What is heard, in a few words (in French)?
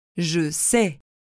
"je sais", French